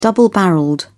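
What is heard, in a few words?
"double-barrelled", British English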